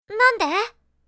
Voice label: "Why" anime girl character

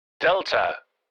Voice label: Military radio voice; unit delta

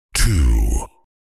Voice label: Deep male voice two

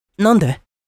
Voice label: "Why" anime boy character

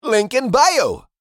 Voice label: Link in bio social media male; shorts sound effects

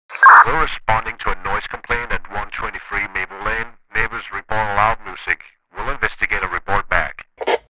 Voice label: Police radio chatter noise complaint

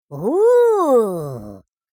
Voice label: surprise exclamation voice; Minion; minions

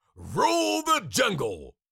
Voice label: Cartoon lion voice; rule the jungle

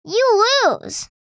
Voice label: You lose kid girl vocalization

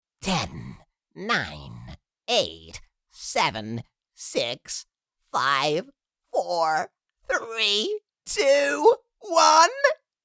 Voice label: Countdown little fantasy creature; fantasy friends

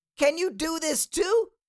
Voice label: Cartoon little child voice

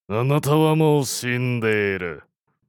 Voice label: You are already dead anime villain character

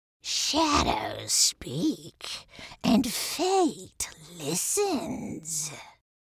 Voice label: Witch fantasy sentence shadows speak

